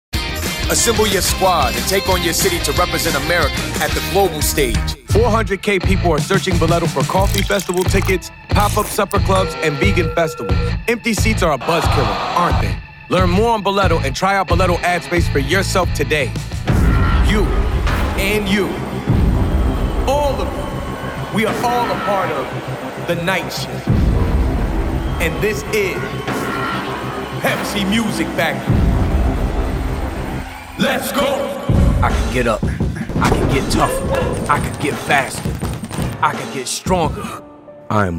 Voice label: VO Male